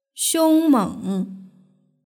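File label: "xiongmeng" Chinese word; hujiahuwei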